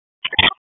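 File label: Raleigh Police North District Tactical, p25; wake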